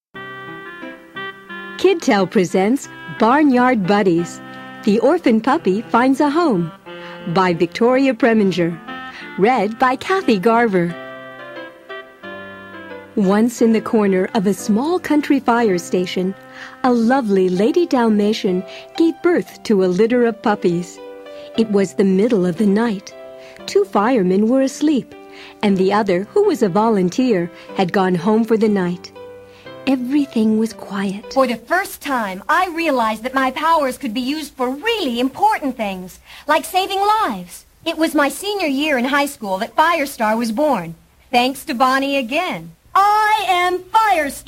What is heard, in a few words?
character voice overs